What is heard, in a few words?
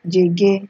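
"jege", Nigerian Pidgin, female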